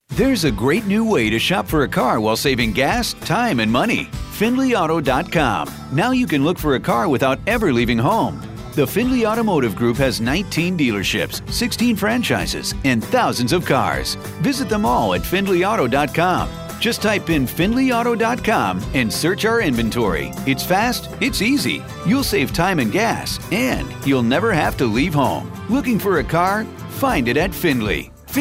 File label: Friendly